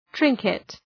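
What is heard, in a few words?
"trinket", English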